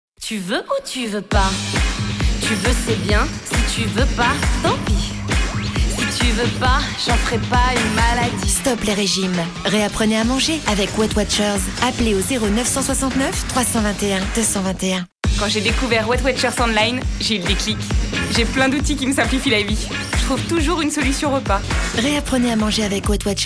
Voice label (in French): girly douce; W Watchers